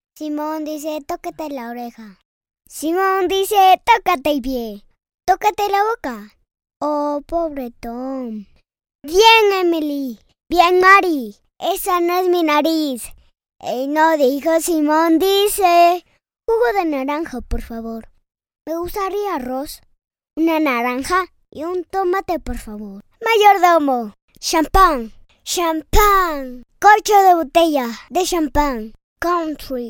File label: Child 5 years old